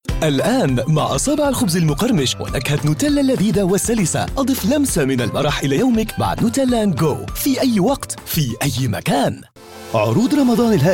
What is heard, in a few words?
Arabic; Arabic (Iraq), Middle Eastern, Male, 30s-50s